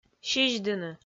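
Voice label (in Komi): "сизьдыны", Komi, female; kpv audio dict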